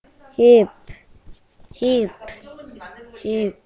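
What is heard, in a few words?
"hip", spoken word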